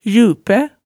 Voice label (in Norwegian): "rjupæ", Hemsedal